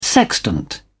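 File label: "sextant", British English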